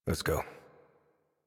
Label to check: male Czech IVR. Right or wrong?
Wrong